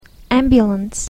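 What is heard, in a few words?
"ambulance", English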